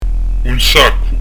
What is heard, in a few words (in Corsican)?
"un saccu", Corse; un s'aku